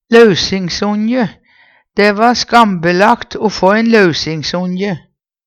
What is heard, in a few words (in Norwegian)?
"lausingsonje", Numedalsmål; Det va skambelagt o få ein lausingsonje.